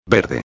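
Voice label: "verde", Spanish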